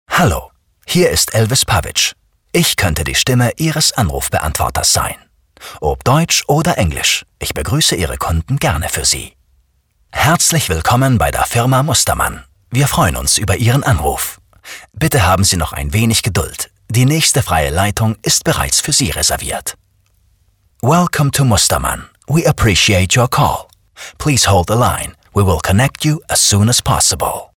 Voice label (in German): Sprecher Telefonansagen